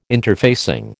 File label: "interfacing", American